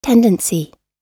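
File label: "tendency", US English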